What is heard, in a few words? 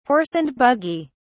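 "horse and buggy", British English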